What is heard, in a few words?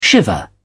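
"shiver", British English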